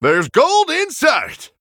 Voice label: Human Emote; Bacon Shop Bob; Reno Jackson

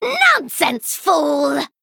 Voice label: Female Gnome; Rin; Mission8 Exchange

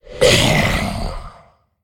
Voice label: Male Jailer Death

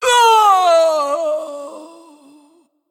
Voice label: Female Ancient Death

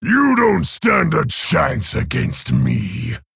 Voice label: Male Orc Idle; Garrosh; Rokara

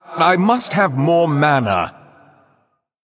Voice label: Male ERROR NEED MANA

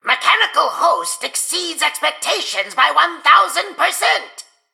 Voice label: Male Mechagnome Play

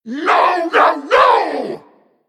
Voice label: male mech attack

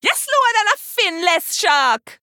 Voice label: Female Troll Idle

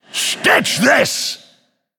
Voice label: Male Forsaken Attack

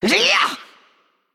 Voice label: Female Naga Attack

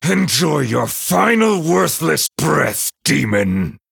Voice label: Male Night Elf Start

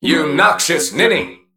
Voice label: Male Mech Attack

